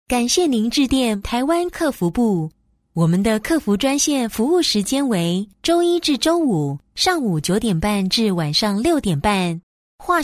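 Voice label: Chinese Taiwanese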